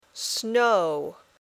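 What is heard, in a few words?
"snow", English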